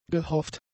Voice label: "gehofft", German; adjectives

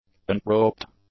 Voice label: "unpropped", english; adjectives

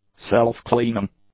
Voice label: "self-cleaning", English; adjectives

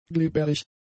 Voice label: "glibberig", German; adjectives

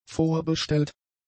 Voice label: "vorbestellt", German; adjectives

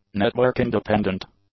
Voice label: "network-independent", english; adjectives

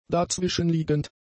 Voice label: "dazwischenliegend", German; adjectives

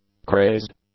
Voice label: "crazed", English; adjectives

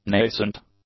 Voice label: "nascent", English; adjectives

